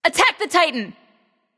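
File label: vox attack male; smite; terra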